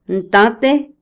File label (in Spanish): ganar, Otomí, mujer; INALI